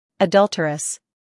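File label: "humor", British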